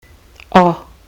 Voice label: o as in wop wops, kiwi english